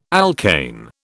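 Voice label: "alkane", British English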